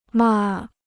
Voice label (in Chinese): "骂", Chinese